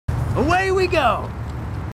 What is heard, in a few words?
marines, marine timid; h2dialogue